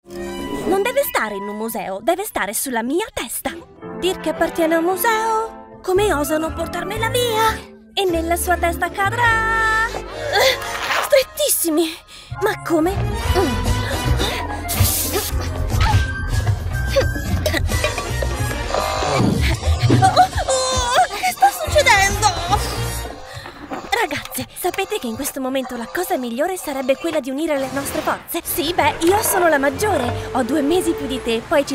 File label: italian impersonations; Italien; Italienisch